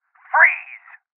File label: Voices Freeze Male